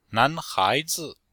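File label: "nan2hai2zi5", chinese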